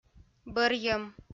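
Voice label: "бӧрйӧм", Komi, female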